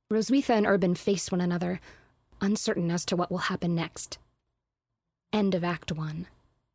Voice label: Narration